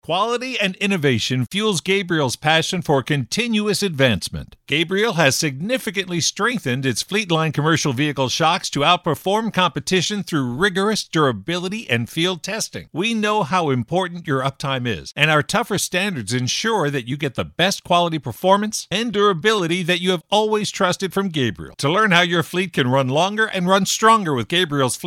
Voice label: Radio Ad; Red Eye